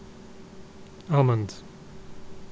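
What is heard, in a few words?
"almond", British English, male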